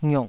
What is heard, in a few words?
"ngiuk3", taiwanese syllables